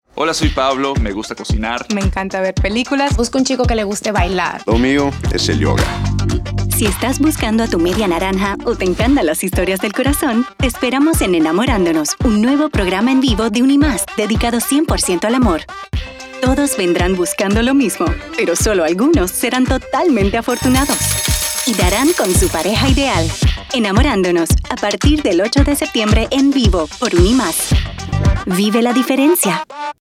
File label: Radio